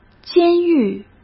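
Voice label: "监狱", Mandarin Chinese; chinese-flashcards; hsk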